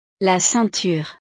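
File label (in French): "la ceinture", French; ropa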